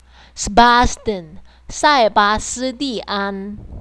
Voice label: Sebastian in chinese